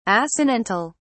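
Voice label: "assonantal", British English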